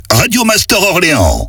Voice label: Voix off, Accap speed; Orléans; Radio Master Orléans

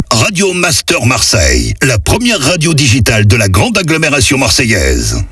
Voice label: 1ère radio Marseille speed, Accap; Voix off; Radio Master Marseille

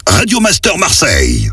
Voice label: Accap speed, Voix off; Radio Master Marseille

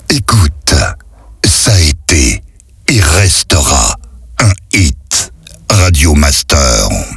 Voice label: Voix off slow; Radio Master; Ca été un Hit

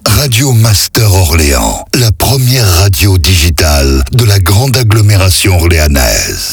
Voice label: slow Accap, Voix off; Orléans; 1ère radio Orléanaise; Radio Master Orléans

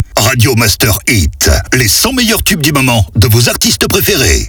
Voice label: Accap Speed, Voix off; Radio Master; 100 meilleurs Hits

